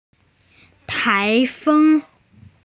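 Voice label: "tai2feng1", Chinese, female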